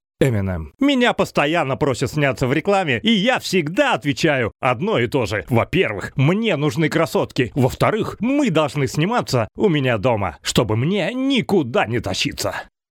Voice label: russian male voiceover locutor ruso

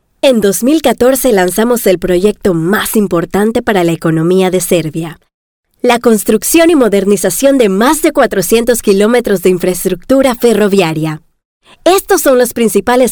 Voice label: locutora panama panamanian voiceover; locutora panameña

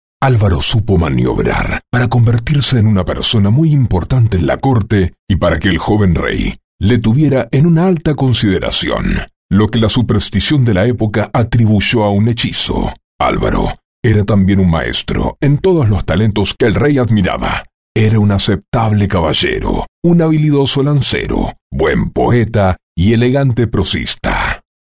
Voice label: locutor argentino argentinian voiceover

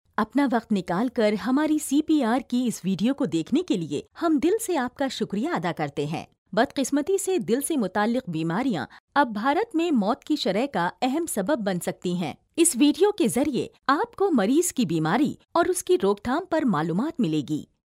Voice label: urdu female voiceover locutora urdu; locutores urdu india

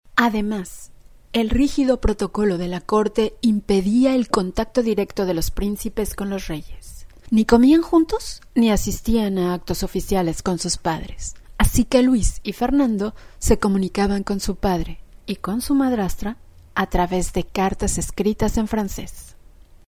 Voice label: locutora mexicana mexican voiceover

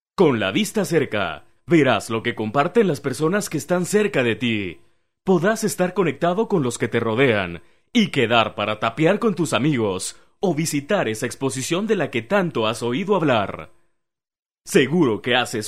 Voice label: locutor mexicano mexican voiceover

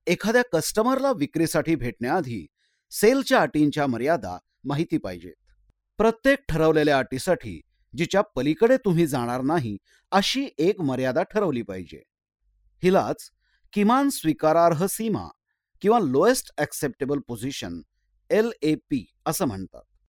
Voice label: marathi voiceover locutor marathi; locutor indio de dialecto Marathi

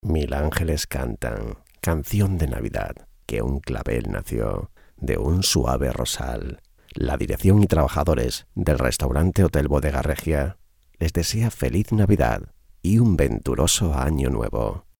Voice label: Commercial voice over; Christmas television spot. Voice talent

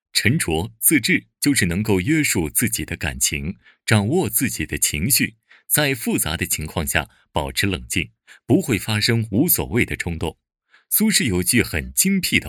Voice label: locutor chino chinese voiceover

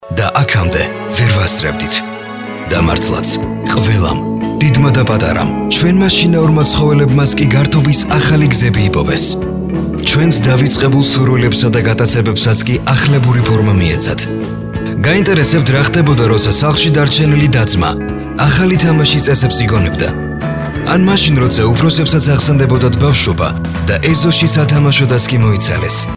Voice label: locutor georgia georgian voiceover; Georgian male voice over

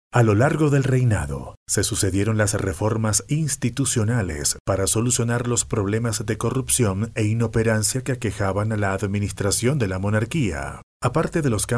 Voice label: locutor venezuela venezuelan voiceover; locutor de Venezuela

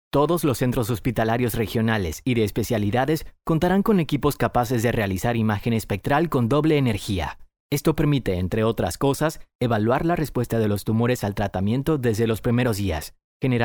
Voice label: locutor panama panamanian voiceover; locutor de Panamá

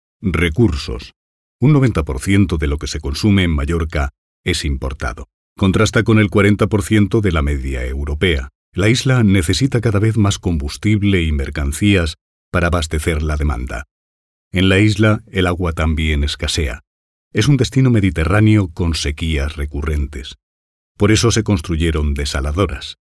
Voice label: locutor spanish voiceover; locutor online para web sobre Baleares

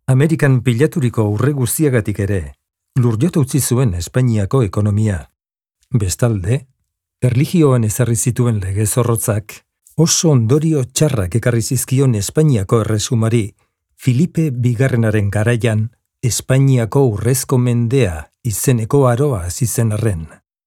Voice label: locutor euskera basque voiceover; locutor euskara; Escena Digital

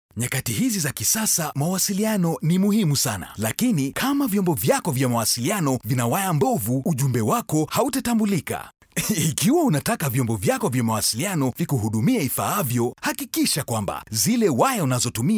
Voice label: locutor swahili male voiceover

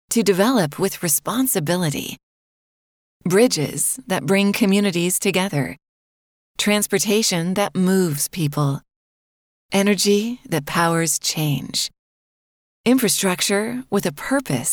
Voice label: locutora norteamericana northamerican voiceover

